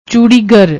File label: "choodigar", Punjabi; ਚੂੜੀਗਰ